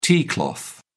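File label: "tea cloth", British English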